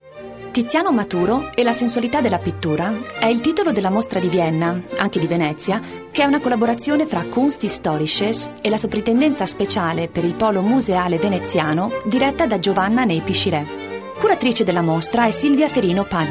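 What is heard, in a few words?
Italian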